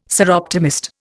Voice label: "Soroptimist", Indian